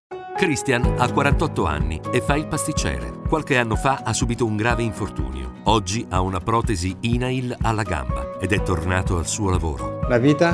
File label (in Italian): Radio; INAIL; Christian; RAI